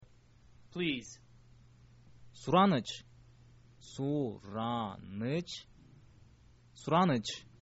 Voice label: please, kyrgyz

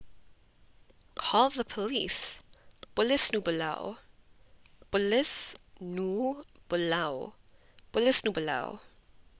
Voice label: Punjabi Call the police

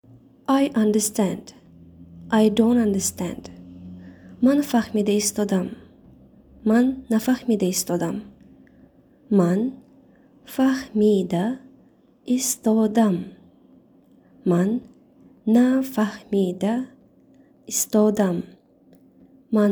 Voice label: I understand I dont understand Tajik

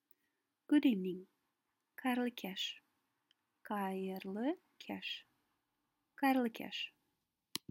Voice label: Good evening, kazakh

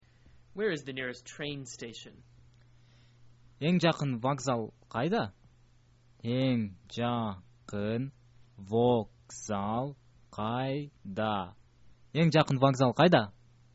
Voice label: train station, kyrgyz

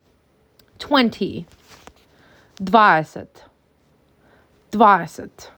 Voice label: MAC 6, macedonian phrasebook

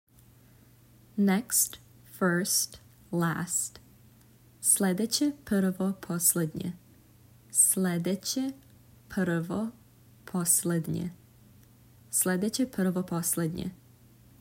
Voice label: Next First Last, serbian

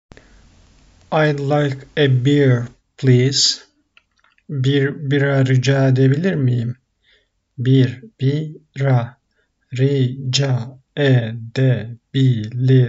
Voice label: "I'd like a beer please", Turkish; the talking turkish phrasebook

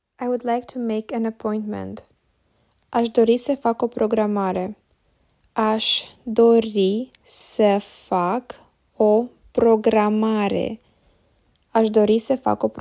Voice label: I would like to make an appointment, romanian

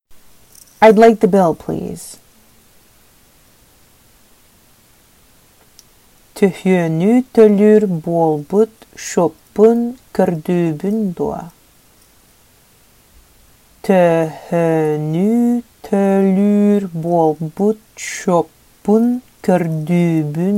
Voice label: Sakha Id like the bill please

